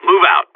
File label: radio lock n load; Counter Strike; CS:GO; seal